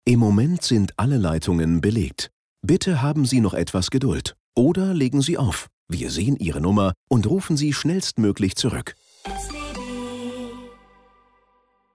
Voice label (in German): Ansage